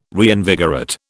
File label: "reinvigorate", British